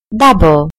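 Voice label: "double", British English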